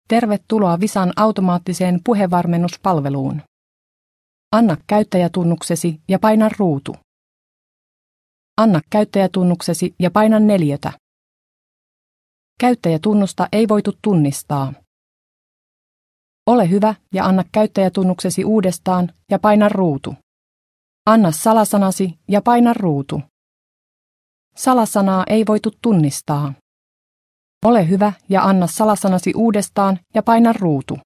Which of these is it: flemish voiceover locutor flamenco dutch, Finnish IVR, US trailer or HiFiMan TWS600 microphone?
Finnish IVR